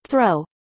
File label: "throw", English